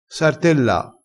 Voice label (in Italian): "sartella", crespinese